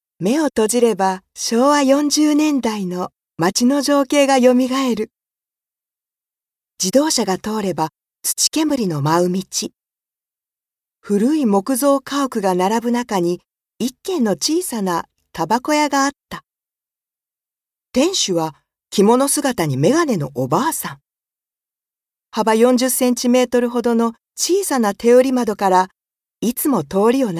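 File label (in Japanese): 朗読, ボイスサンプル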